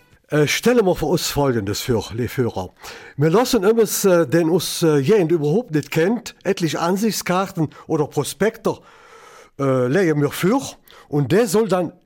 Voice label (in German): Eifeler Mundart; 700 Jahre St Hubertus in Weweler